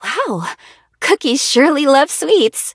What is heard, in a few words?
levelup en